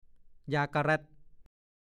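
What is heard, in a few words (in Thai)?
"ยากะแร่ต" ภาษาโคราช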